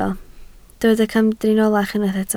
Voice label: "camdriniolach", Welsh